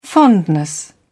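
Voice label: "fondness", British English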